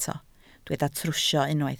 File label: "trwsio", Welsh